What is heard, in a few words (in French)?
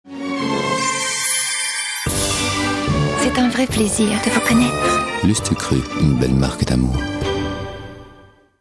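angelique, comédienne voix off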